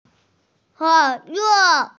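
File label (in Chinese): "ke3 le4" Mandarin Chinese, female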